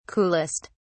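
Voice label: "coolest", British English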